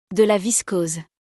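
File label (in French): "De la viscose", French; vocabulaire; le lexique de l'habillement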